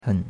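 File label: "hen", Chinese, third tone; Cours-de-Chinois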